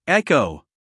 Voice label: "Echo" US English, guy; everyone-can-use-english

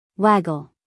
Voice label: "waggle", us female

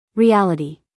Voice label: "reality", US female

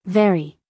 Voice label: "very", us female

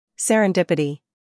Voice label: "serendipity", US English

